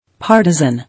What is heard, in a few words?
"Partisan", English